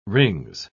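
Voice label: "rings", British English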